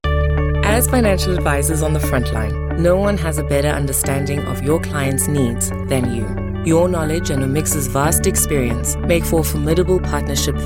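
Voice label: female, South African English, corporate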